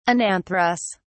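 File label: "anantherous", British English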